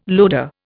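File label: "loader", Indian English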